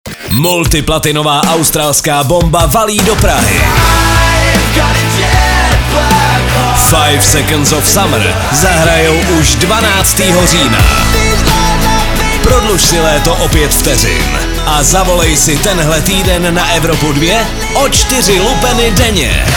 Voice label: promo; evropa2